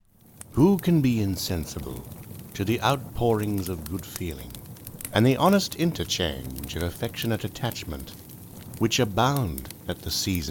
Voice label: my reading of a Dickens passage from a christmas carol